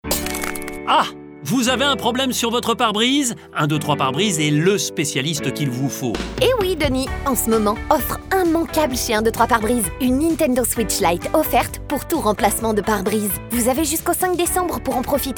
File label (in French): spot radio; 123 parebrise